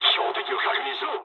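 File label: player friendly fire mood low, speech japanese; War Thunder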